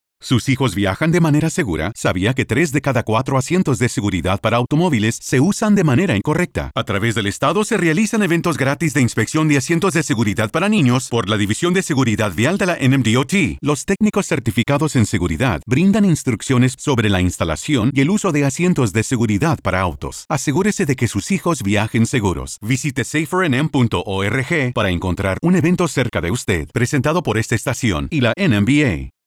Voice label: Occupant Protection Child Seat Safety Spanish